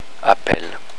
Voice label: "appel", French; je m'appelle [apèle]

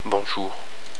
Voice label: "bonjour", french

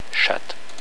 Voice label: "chatte", french; la/une chatte

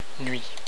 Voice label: "nuit", french; la/une nuit

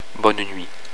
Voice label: "bonuit", french; bonne nuit

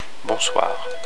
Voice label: "bonsoir", french

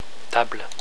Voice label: "table", french

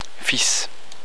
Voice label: "fils", french

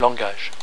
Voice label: "langage", french

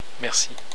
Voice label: "merci", french

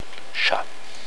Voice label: "chat", French

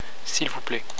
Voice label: "svp", French; s'il vous plaît

